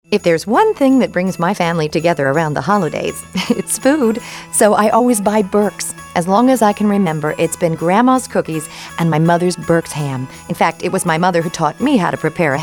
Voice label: 40s Female